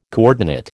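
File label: "coordinate", American English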